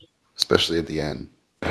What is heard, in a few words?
Voice Acting, es